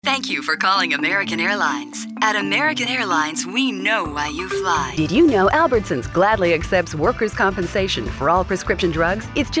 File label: voice phone store casting